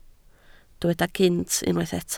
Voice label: "gynt", Welsh

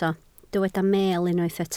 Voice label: "meel", Welsh

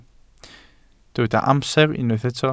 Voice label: "amser", Welsh

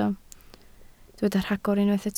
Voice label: "rhagor", Welsh

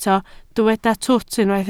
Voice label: "twt", Welsh

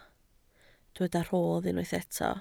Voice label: "rhodd", Welsh

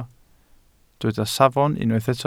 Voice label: "safon", Welsh

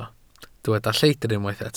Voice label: "lleidr" Welsh

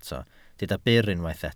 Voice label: "byr", Welsh

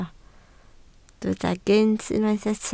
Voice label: "gynt", Welsh

